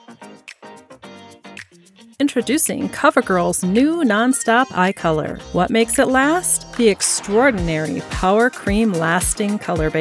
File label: with music, Professional Female Voice Over